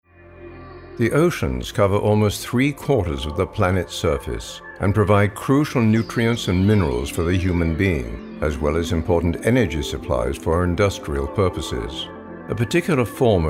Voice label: NARRATION